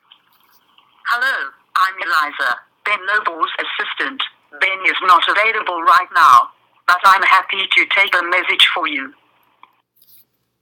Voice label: Voicemail